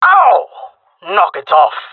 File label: taking fire friendly, jungle male; player vo; Counter Strike Model Pack; CS:GO